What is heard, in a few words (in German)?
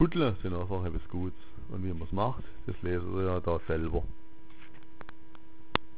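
kuttla, schwäbisch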